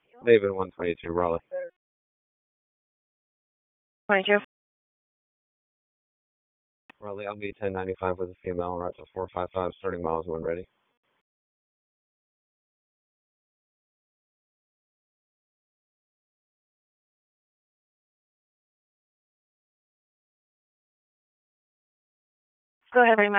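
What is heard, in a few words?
Police Southwest District Dispatch; Raleigh; wake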